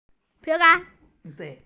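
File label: "safe", Mandarin; ping2an1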